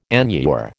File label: "enure", American